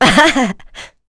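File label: Vox Happy, voices heroes English; kingsraid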